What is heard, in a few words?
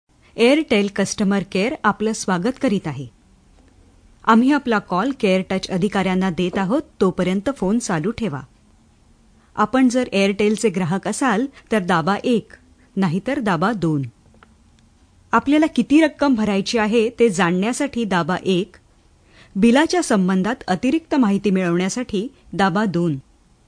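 Marathi general; GoLocalise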